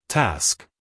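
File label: "task", American English male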